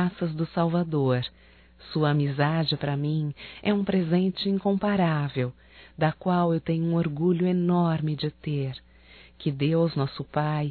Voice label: NATAL ANO NOVO AMIGO (F); Telemensagens 2017

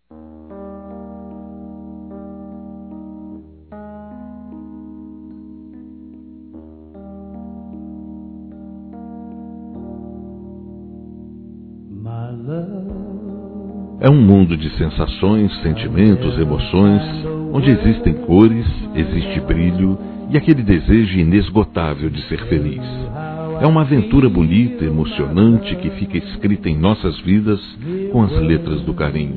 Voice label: Namorado M; Aniversários; ANIVERSARIO romantico; masc

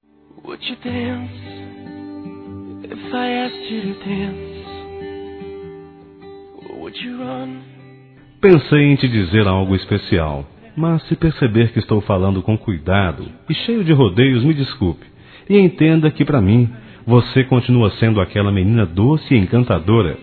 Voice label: Aniversário Neta Masculino

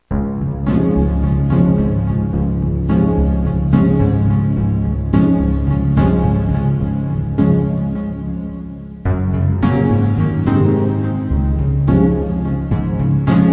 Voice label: Dia Das Mães Para Tia da Escola Feminino; Telemensagens 2017

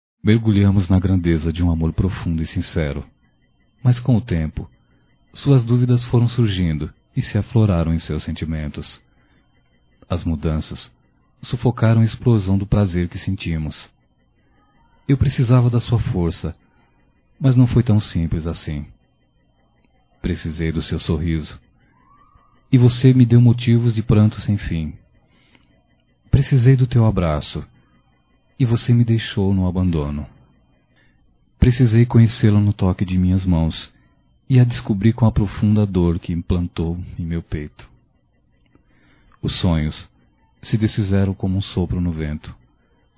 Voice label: Romantica Masculino

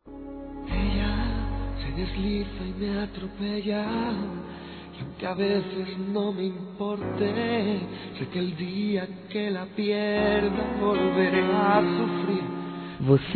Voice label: ALGUÉM DISTANTE F; fem

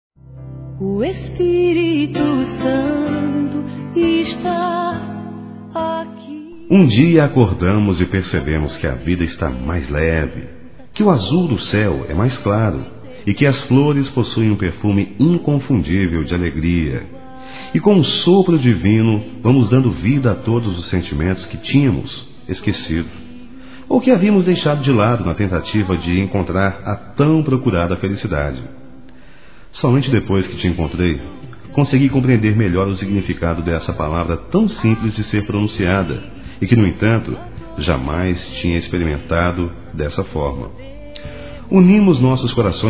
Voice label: Evangélico Romantica Masculino, Telemensagens; ANIV. Evangelico

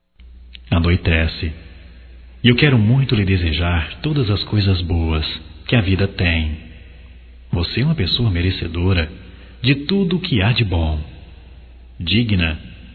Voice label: Boa Noite Masculino, Só Voz; Telemensagens; Telemensagens 2017

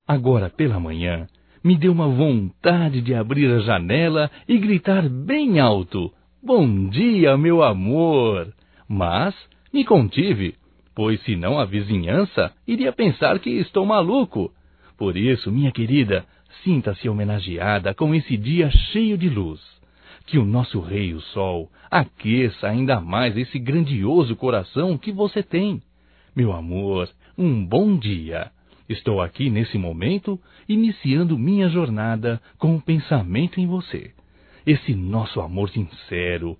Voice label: BOM DIA ROMÂNTICO (M); Bom dia, tarde e noite; Telemensagens 2017

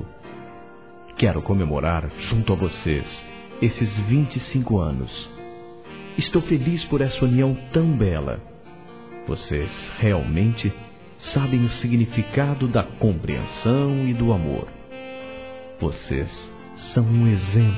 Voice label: BODAS DE PRATA, Telemensagens, masc; Bodas e Casamento

